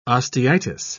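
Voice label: "osteitis", British English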